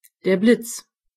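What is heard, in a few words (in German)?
"lightning", German; gcompris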